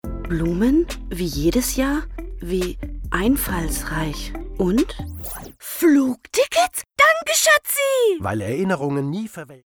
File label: female german actor comercial; ideasonora